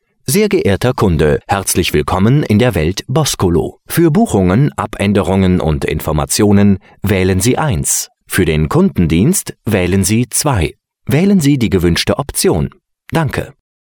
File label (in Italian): tedesco Maschile; messaggi vocali personalizzati